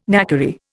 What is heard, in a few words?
"knackery", Indian